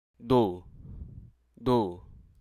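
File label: Hruso Aka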